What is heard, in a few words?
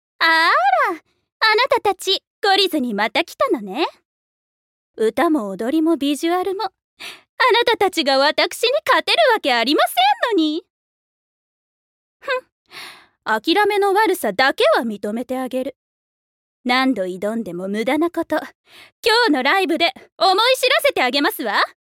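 voice sample, proud rival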